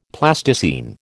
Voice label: "Plasticine", American